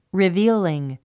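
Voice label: "revealing", English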